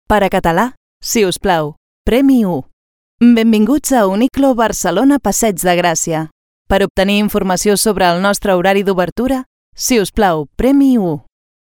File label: Catalan IVR